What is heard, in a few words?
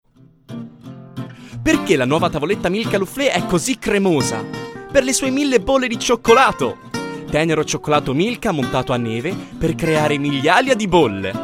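Italian, Commercial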